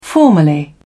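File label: "formally", English, British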